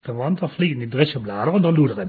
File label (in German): moselfrankisch